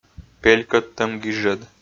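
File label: "пелькӧдтӧм гижӧд", Komi, male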